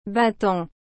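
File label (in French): "battant", French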